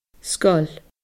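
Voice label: "sgoil", Scottish Gaelic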